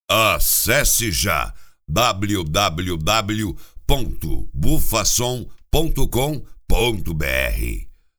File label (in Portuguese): vinhetas locutor; Bufa Som